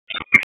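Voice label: Police Spec Ops Tac, radio; Raleigh; Spec Ops Tac 4; wake; p25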